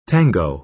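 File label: "tango", American English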